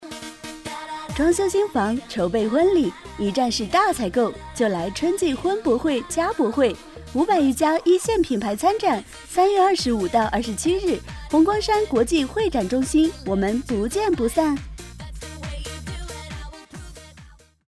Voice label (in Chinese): 婚博会家博会广告